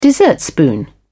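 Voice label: "dessertspoon", British English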